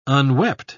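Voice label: "unwept", English, British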